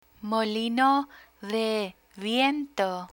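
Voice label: "molino de viento" Spanish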